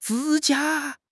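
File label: "指甲", Mandarin Chinese; HSK6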